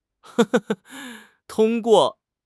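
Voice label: "tong1 guo4", Mandarin Chinese; HSK4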